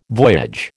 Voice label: "voyage", American